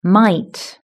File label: "might", british english